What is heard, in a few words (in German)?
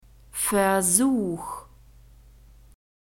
"versuch", German; masculine